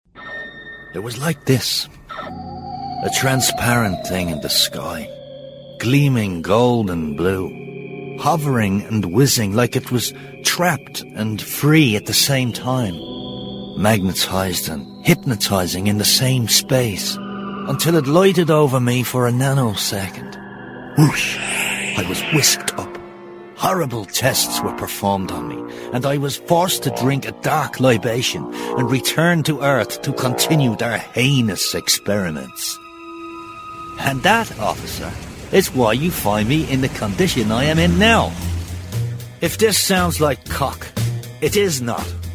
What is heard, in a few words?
Commercial; Red bull; Vocal Point